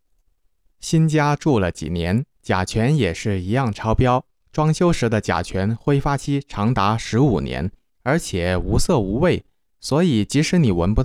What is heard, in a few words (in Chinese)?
电影解说试音